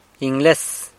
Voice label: "Ingles", San Lucas Quiavini

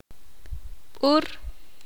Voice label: ixil; burro

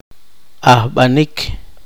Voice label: poqomchi; resembrar

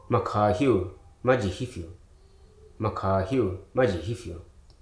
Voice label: "inert", Hruso

